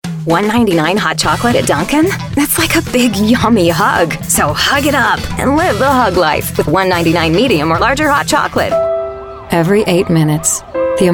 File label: Commercial